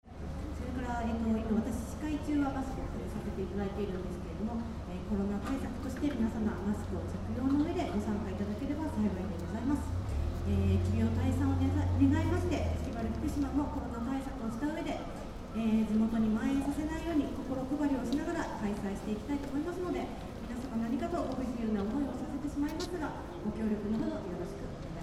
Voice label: machinaka announcement